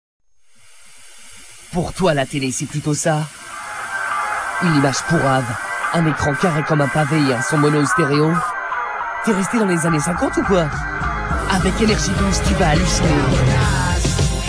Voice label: promo; nrj12